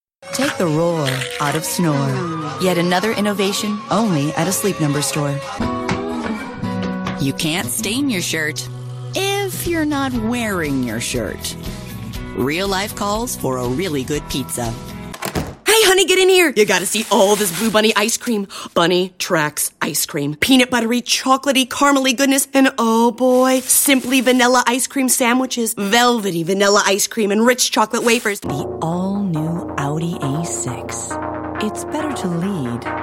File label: commercial